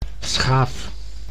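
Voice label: "schaaf", Dutch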